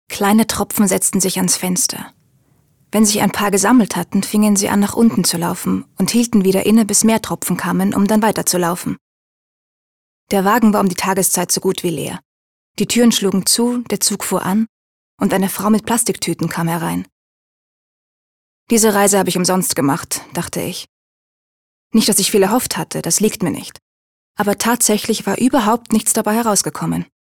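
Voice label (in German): Austrian, Fremdtake Neutral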